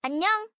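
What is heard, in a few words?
"annyeong", Korean; Dva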